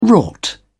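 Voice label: "wrought", English, British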